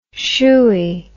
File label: "shoey", English